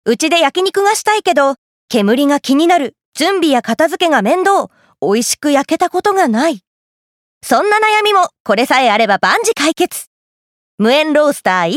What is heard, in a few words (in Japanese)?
調理器具CM